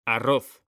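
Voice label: "ARROZ", Spanish, male; mekanta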